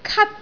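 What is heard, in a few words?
"kat1", Cantonese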